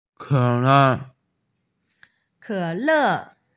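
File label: "cola", Mandarin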